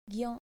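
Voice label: "gyo", Japanese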